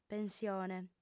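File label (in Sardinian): "pensione", female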